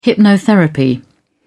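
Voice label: "hypnotherapy", British English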